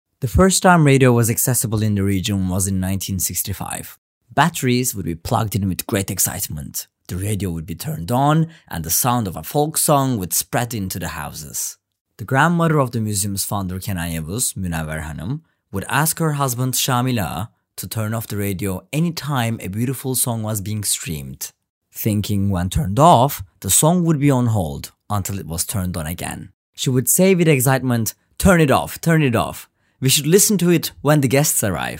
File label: english